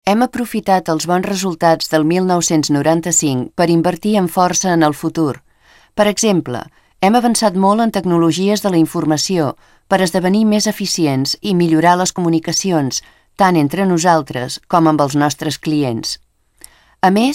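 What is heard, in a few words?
Catalan, voiceovers other languages; foreign languages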